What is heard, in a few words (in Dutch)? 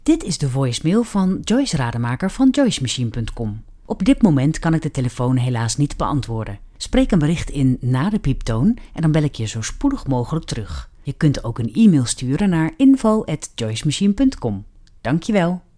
zakelijke voicemail voorbeeld